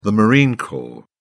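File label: "marine corps", English, British English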